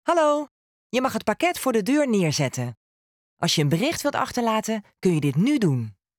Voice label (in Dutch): Ring Nederlandse snelle antwoorden